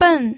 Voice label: "ben4", pinyin